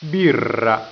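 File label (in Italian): "birra", Italian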